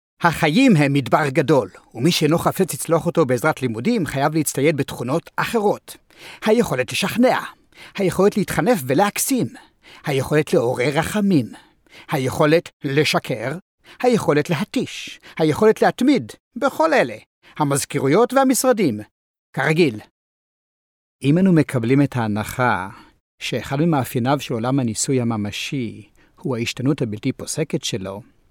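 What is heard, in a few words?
Hebrew Male; voice over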